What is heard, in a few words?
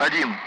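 Speech Sound Russian Common Allied Flight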